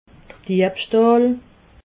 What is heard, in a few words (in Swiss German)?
"diebstahl", alsace